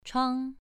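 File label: "chuang" Mandarin, second tone, pronunciation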